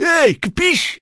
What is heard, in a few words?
chicago gangster; HEDZReloaded